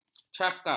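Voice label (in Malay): "cakap", Malay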